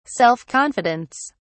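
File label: "self confidence", British English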